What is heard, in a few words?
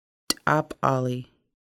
covered area, Lushootseed